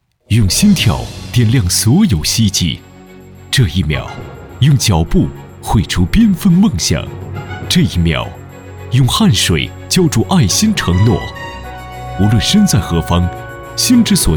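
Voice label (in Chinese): 男 专题 亲切活力 片段, 普通话; 海航集团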